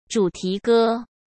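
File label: "主题歌", Mandarin Chinese; chinese-flashcards; hsk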